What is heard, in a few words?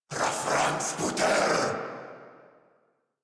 Player Spotted Corrupted Grineer Male